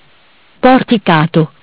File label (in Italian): "Porticato", MESSAGGI VOCALI; Bentel; BLE320; Audio BGS220